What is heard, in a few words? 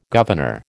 "governor", American